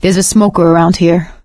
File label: heard smoker, survivor voice; Left 4 Dead 2; producer